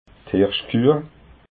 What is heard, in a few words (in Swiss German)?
"hirschkueh", alsace